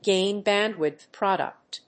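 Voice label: "gain band width product", English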